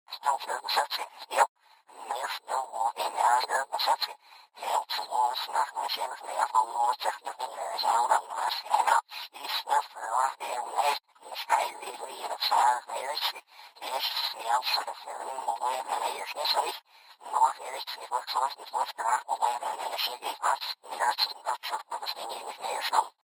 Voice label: Alien Voice On Radio Transmission; Sci-Fi Voice